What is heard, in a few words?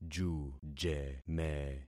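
"jujeme", concatenated syllables; Affixing experiment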